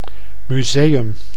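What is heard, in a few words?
"museum", Dutch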